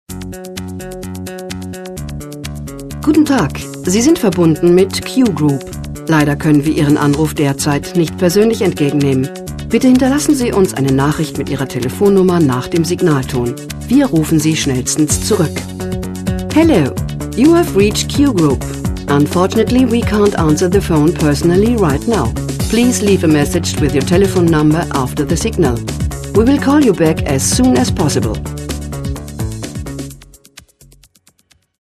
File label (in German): anrufbeantworter; q group